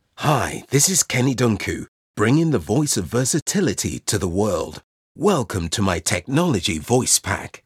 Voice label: Technology Branded